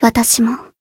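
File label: Voice limit break, Japanese; ruina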